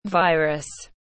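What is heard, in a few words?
"Virus", English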